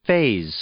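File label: "phase", English; noun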